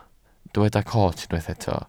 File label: "coot", Welsh